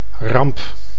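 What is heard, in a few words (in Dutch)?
"ramp", Dutch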